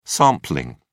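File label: "sampling", British English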